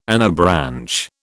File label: "anabranch", British